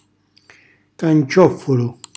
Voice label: "cancioffulu", Calabrese

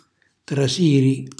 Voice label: "trasiri", Calabrese; entrare; cucina calabrese

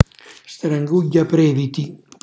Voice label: "stranghiughhiapreviti", Calabrese; cucina calabrese

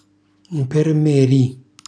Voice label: "mpermeri", Calabrese; infermiere; cucina calabrese; Glossario

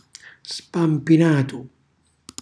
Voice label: "spampinatu", Calabrese; cucina calabrese

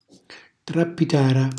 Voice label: "trappitara", calabrese; cucinacalabrese; glossario

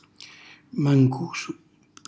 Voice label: "mancusu", Calabrese; luogo umido senza sole; cucina calabrese; Glossario

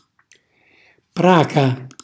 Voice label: "praca", Calabrese; cucina calabrese; Glossario

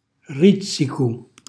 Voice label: "rrizzicu", Calabrese; rischio; cucina calabrese

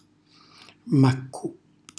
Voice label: "maccu", Calabrese; zuppa di fave secche; cucina calabrese; Glossario

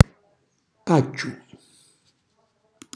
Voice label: "pacciu", Calabrese; cucina calabrese

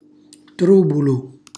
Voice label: "trubulu", calabrese; sporco, inquinato; cucinacalabrese; glossario

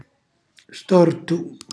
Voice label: "stortu", Calabrese; cucina calabrese

